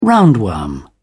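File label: "roundworm", British English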